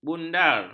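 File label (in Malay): "bundar", Malay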